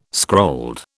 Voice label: "scrolled", British